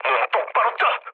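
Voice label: Korean player friendly fire mood low, speech; War Thunder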